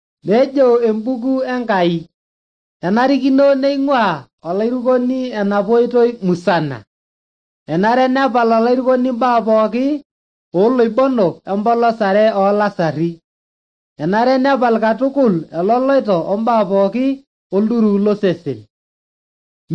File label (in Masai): maasai bsv nt drama; good news; bible